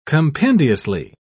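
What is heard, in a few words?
"compendiously", British English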